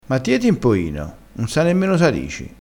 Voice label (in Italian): "chetassi", crespinese